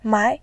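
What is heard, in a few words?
"mai", Mandarin, tone 2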